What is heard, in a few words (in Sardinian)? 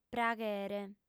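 "praghere", female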